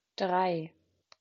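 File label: "drei", German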